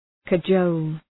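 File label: "cajole", English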